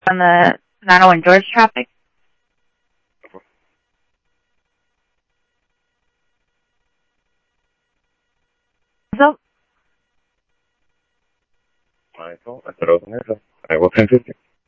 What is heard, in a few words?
Tempe Police, scanner audio; TEMPE PD A02